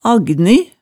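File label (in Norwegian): "aggni", Hemsedal dialect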